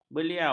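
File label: "beliau", Malay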